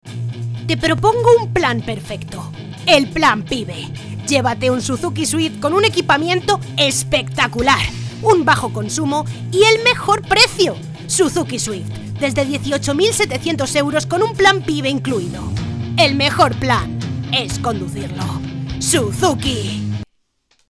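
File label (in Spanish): e-learning, Spain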